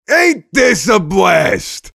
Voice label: mobster positive vocalization